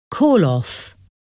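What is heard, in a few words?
"call off", English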